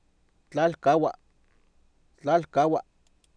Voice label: "tlAalkAawa", Oapan, male; Oapan minimal pairs 2000